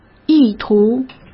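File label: "意图", Mandarin Chinese; chinese-flashcards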